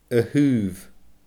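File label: "a-thaobh", Scottish Gaelic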